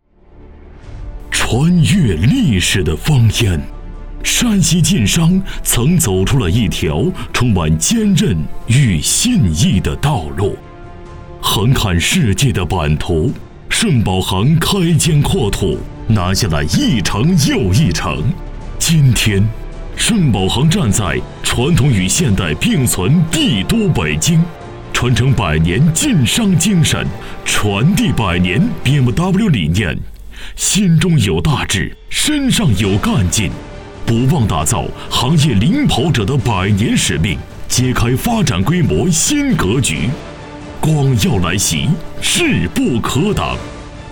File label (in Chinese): 男,宣传片; 顺宝行经销商